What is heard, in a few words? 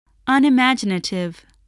"unimaginative", English spelling voice